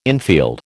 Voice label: "infield", American